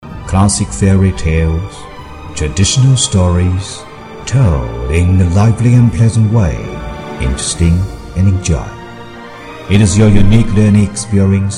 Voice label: Asian Accent; foreign languages